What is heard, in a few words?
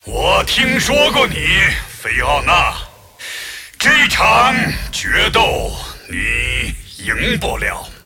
move, zh